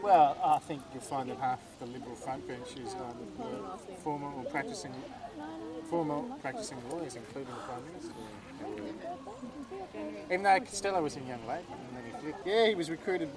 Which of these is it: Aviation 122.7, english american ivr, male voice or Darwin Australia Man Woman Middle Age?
Darwin Australia Man Woman Middle Age